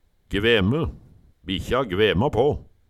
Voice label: gveme, Numedalsmål; Bikkja gvema på

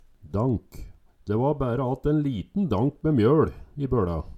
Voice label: "dank", Numedalsmål

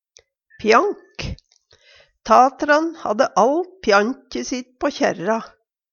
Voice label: pjank, Numedalsmål; Tat'ran hadde alt pjankje sitt på kjerra.; Substantiv inkjekjønn; Personleg utstyr, klede, sko

